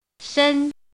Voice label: "shēn", hanzi

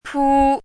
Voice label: "pū", hanzi

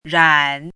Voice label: "rǎn", hanzi

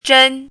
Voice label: "zhēn", hanzi

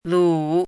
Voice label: "lǔ", hanzi

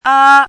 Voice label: "ā", hanzi

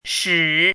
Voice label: "shǐ", hanzi

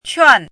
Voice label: "quàn", hanzi

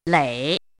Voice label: "lěi", hanzi

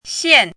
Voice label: "xiàn", hanzi

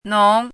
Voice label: "nóng", hanzi

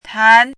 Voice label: "tán", hanzi

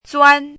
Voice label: "zuān", hanzi

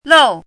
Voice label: "lòu", hanzi

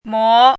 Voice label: "mó", hanzi

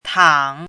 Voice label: "tǎng", hanzi

